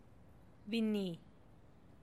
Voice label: "binni", teotitlan

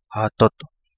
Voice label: Kapingamarangi; canoe float Connectives

